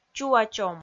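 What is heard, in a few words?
"чуачом" Komi, female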